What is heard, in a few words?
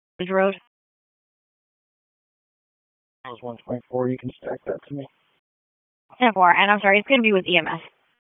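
Police Southwest District Dispatch, p25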